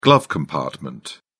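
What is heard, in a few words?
"glove compartment", English, British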